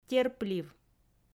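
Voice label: "терплив", Komi, female; audio dict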